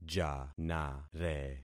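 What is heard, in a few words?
"janare", concatenated syllables; Affixing experiment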